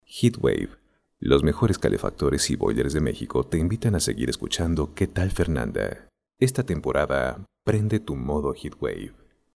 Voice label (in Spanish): LOC VESTIMENTAS RADIALES